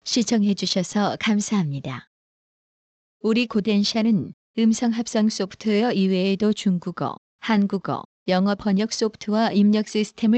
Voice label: korean